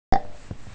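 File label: "zi4", Mandarin Chinese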